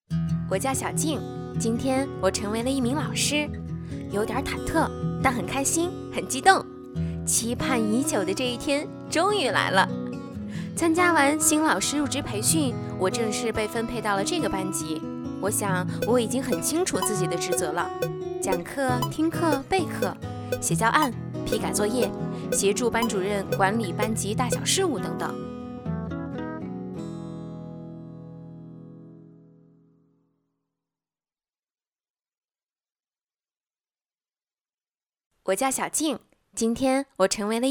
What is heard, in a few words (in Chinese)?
女 素人角色自述阳光女声老师